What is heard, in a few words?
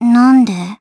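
voice, Japanese; Gremory; Deny; kingsraid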